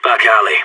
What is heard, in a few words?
back alley, radio; SAS